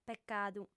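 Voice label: "pecadu", female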